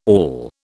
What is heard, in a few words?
"awl", British